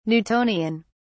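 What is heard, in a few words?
"newtonian", British English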